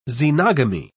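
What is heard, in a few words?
"xenogamy", English, British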